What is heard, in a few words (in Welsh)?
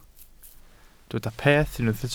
"peth" Welsh